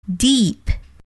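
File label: "deep", English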